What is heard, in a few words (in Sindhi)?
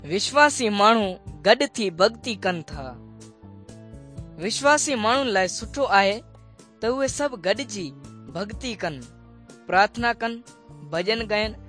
good news sindhi dukslinu, drama